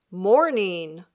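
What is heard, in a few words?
"mourning", English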